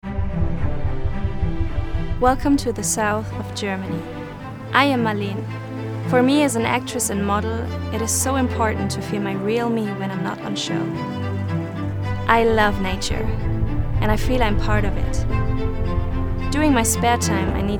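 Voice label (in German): Sprecherdemo Englisch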